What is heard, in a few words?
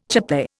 "chape", Indian